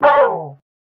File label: COG VO grunt